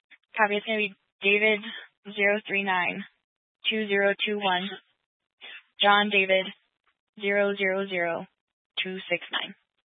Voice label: Sheriff Dispatch, scanner; Mesa County Sheriff Dispatch